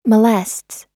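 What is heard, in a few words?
"molests", American English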